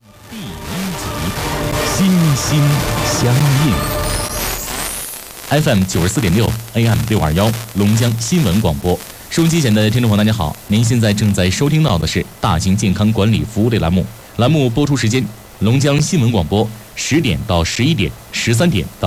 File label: 88.0MHz Heilongjiang News Radio